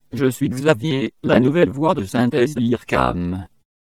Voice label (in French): je suis xavier pitch stretch